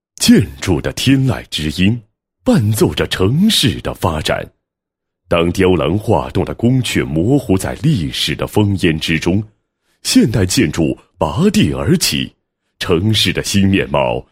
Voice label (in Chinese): 男 宣传广告; 建筑的天籁之音